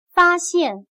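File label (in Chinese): "发现" Mandarin Chinese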